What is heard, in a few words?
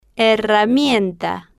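"herramienta", Spanish, female, slow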